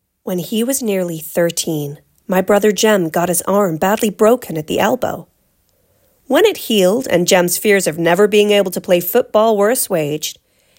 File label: General American